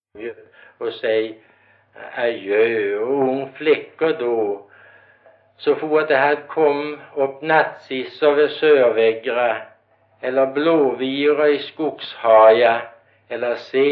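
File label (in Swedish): Gårdby Öland, dialekter; Folkminnen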